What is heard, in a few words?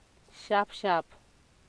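"sharp sharp", Nigerian Pidgin, female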